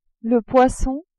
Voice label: "poisson", french

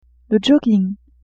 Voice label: "jogging", French; vetements

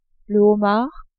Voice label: "homard", french

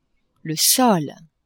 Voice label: "sol", French; maison

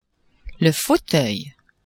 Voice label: "fauteuil", French; maison

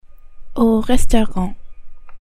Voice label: au restaurant, French

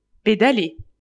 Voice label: "pédaler", French; velib

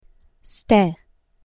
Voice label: "se taire", French

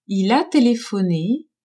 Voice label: "il a telephone", french; telephoner

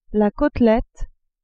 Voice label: "cotelette", french

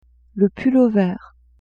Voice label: "pull-over", French; vetements

